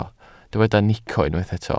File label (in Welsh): "nico", Welsh